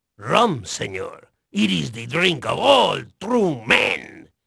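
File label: Spanish male, voice; Pirates Of The Caribbean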